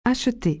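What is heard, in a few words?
"acheter", French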